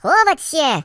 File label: Take cover, Russian; Russian pl